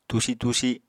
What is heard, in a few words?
"tusi-tusi", apatani